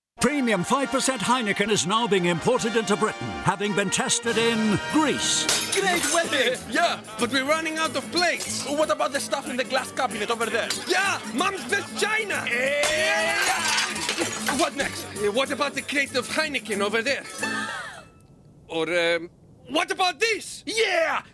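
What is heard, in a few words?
Greek, English Accent; GoLocalise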